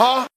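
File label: "huh", vocal sample; Voice; ooh ahh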